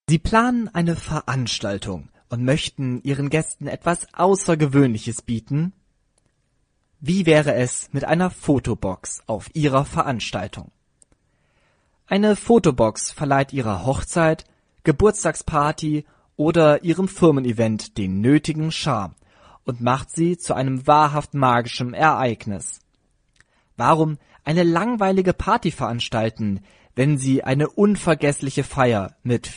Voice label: 德语男声

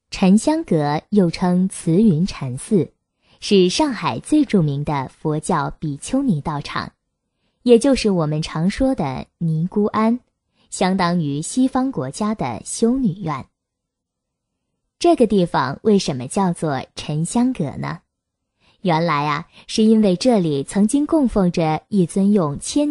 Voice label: 女, 旅游宣传, 沉着韵味; 中英双语; 舒缓抒情; 沉香阁

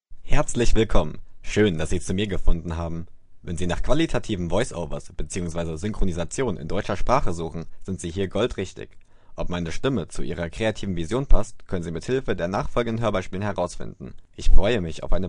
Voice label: 德语男声

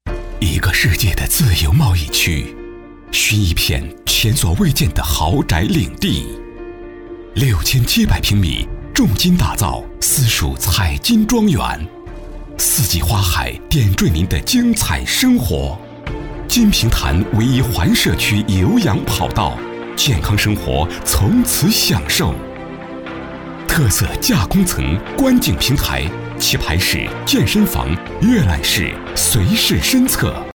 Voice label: 男, 广告; 成熟稳重; 高端大气; 彩金庄园

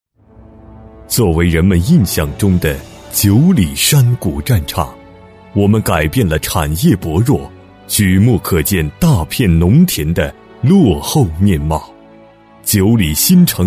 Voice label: 男 社区汇报专题 抒情厚重; 政府机关专题类型

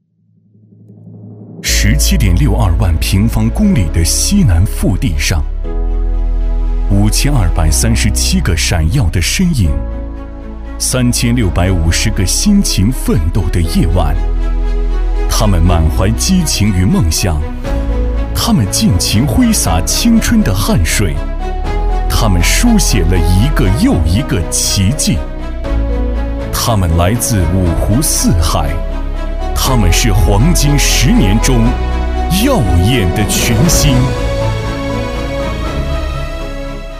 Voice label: 男 城市宣传 大气抒情; 多彩贵州

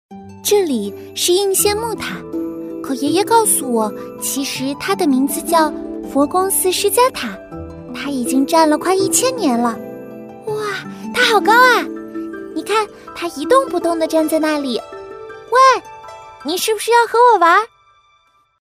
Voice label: 女, 童声, 8-10岁女童, 萝莉自然

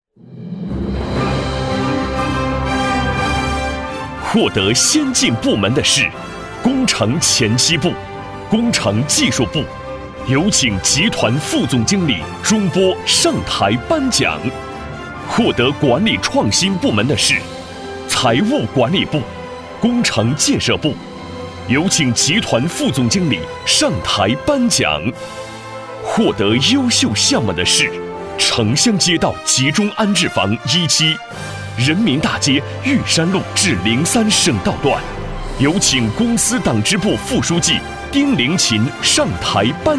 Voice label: 男 颁奖词 大气激昂; 公司集团; 年轻激情; 大气浑厚; 男25-颁奖词【公司集团】大气激昂

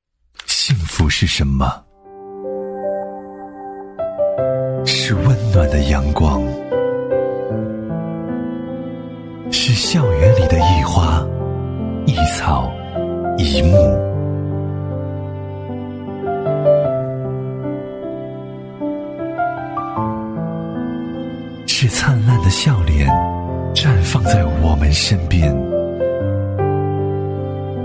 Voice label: 男 走心宣传 温暖走心; 语言全能; 质感磁性; 幸福教育集团

